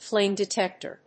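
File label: "flame detector", English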